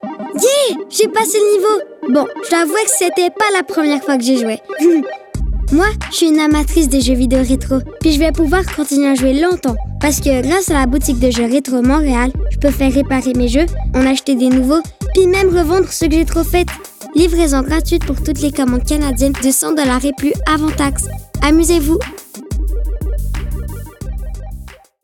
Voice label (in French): vocal Retro Montreal